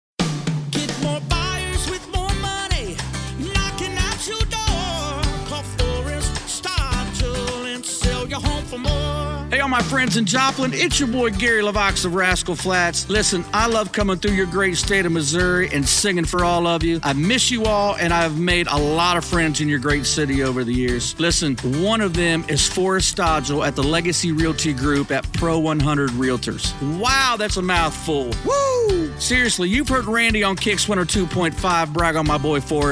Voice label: VO Jingle